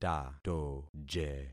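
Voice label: "tatoje", concatenated syllables; Affixing experiment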